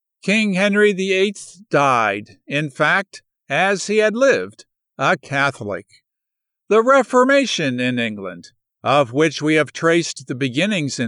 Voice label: Audiobooks NF 1st person History conversational

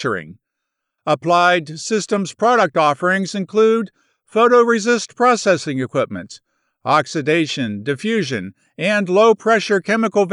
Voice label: Explainer Brand Informative Tech

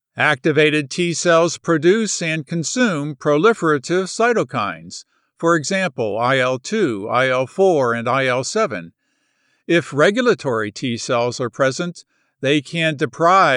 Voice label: Scientific Narration

